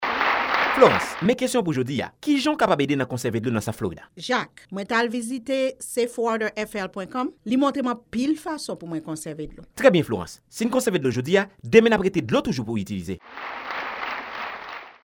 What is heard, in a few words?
water conservation creole